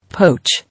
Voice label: "Poach", English